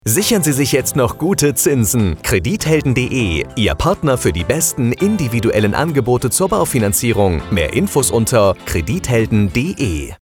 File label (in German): Funkspot; Kredithelden